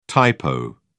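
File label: "typo", British English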